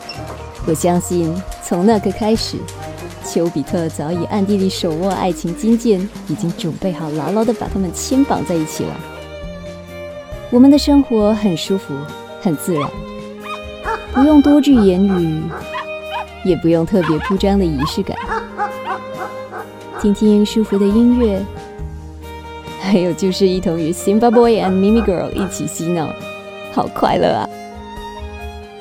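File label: MANDARIN - WEDDING VIDEO NARRATION Friendly, Approachable, Conversational, Emotional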